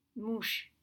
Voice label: "mouches", French